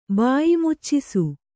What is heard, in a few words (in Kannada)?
"ಬಾಯಿಮುಚ್ಚಿಸು", Kannada